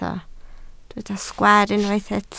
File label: "sgwaar", Welsh